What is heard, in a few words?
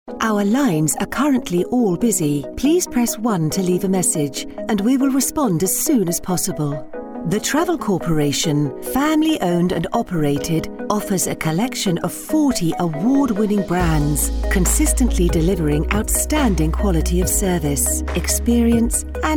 On Hold IVR